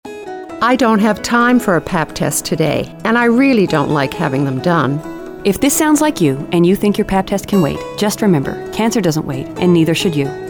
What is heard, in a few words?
Radio Ad; Pap Test Awareness Week